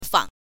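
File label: "fang", chinese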